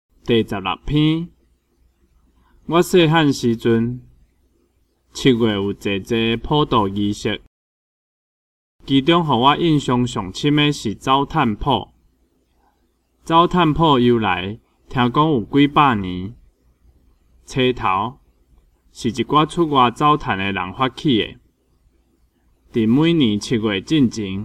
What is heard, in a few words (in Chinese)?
文章朗讀; 閩南語; 閩南語語言能力認證; 金安咱來考C